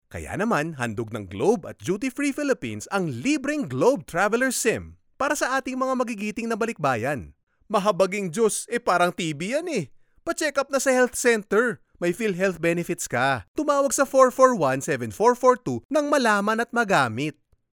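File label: Tagalog Male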